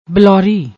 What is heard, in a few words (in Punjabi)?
"blouri", Punjabi